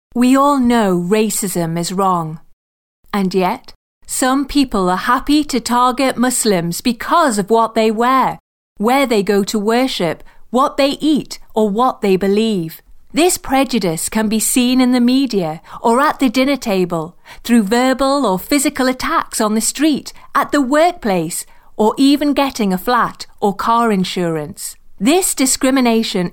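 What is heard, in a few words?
English, female; lektoring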